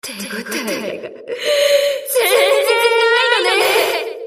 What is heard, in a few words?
Enemy Voice Damaged Attack; South Pacific Aircraft Carrier Princess